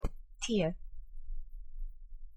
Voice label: Afrikaans, tee; thee-in-andere-talen